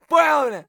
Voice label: mutter; Escape from Tarkov; scav2

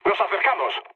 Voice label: near waypoint, mood med, speech; War Thunder

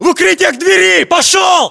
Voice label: order cover doorway, ru; localized english

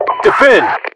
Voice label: defend, voiceovers, US; english

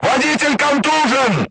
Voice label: voice message driver contused, crew tanks russian

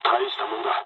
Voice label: jp trick, speech jp; War Thunder

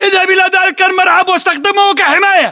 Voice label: order cover garage, battle chatter, english

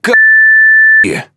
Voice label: Speech Quick Commands Caramba Beep, RU; World Of Warships

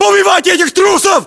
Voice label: order action boost, ru; localized english

